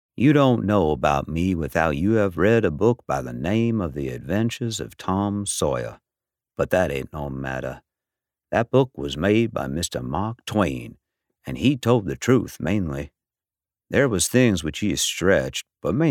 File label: Children